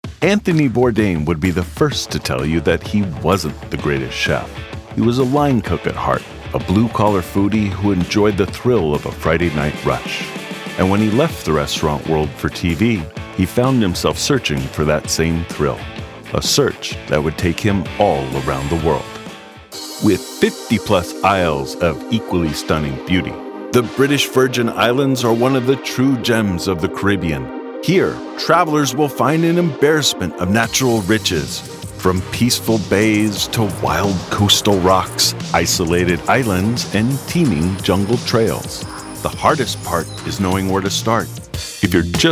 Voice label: Narration